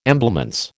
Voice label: "emblements", American

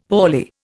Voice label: "poorly", Indian; Dictionary

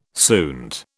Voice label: "suint", British

